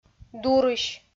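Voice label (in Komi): "дурысь", Komi, female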